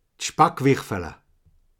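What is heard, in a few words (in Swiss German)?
"speckwirfel", Alsatian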